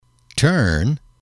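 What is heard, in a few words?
"turn", English, male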